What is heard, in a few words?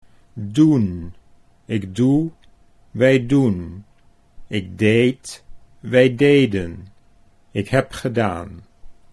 "doe nik wij", Dutch